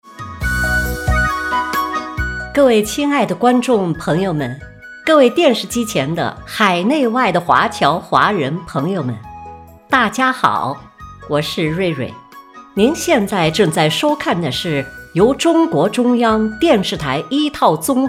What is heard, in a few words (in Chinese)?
晚会 主持